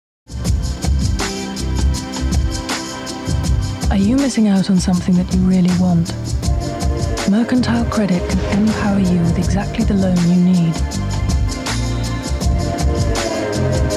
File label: Commercials